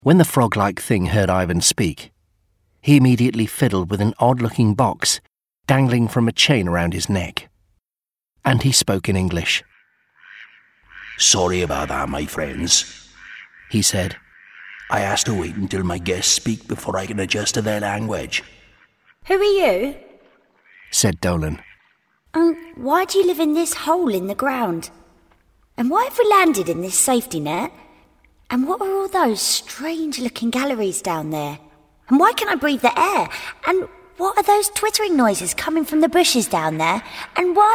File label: audiobook reel, voiceover